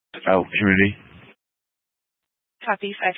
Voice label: Fire Department Dispatch